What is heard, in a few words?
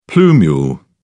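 "plumule", British English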